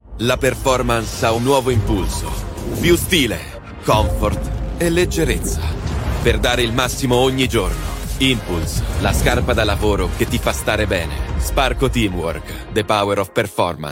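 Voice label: TV COMMERCIAL, voiceover; SPARCO TEAMWORK IMPULSE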